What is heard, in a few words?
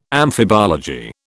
"amphibology", British